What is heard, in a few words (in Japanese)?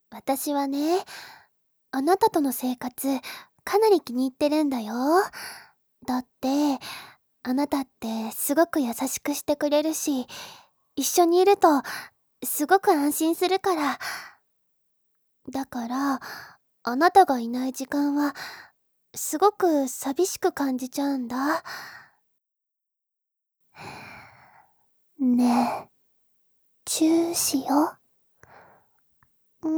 イチャイチャ＆ベロキス サンプルボイス